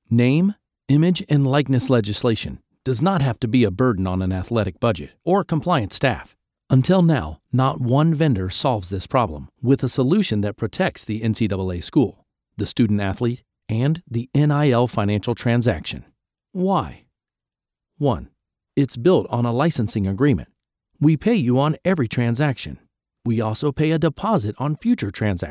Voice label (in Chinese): 低沉 区块链行业 宣传